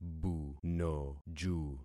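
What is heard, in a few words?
"bunoju", concatenated syllables; Affixing experiment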